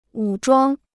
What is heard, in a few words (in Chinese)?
"武装", Chinese